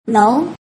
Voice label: "lou", mandarin

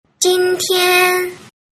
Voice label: "jintian", mandarin

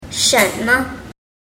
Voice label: "shenme", mandarin

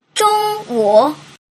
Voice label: "zhongwu", mandarin

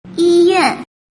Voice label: "yiyuan", mandarin

